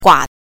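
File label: "gua", Mandarin Chinese pinyin, neutral tone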